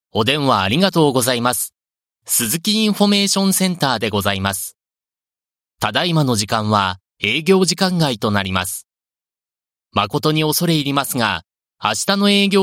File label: japanese ivr